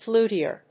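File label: "flutier", English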